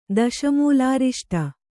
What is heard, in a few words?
"ದಶಮೂಲಾರಿಷ್ಟ", Kannada